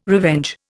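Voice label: "revenge", Indian English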